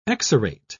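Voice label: "exarate", British English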